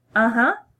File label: "uh-huh", English